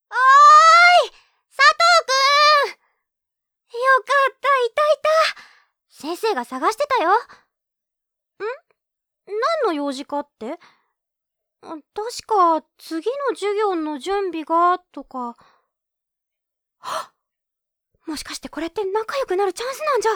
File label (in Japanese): セリフ 女生徒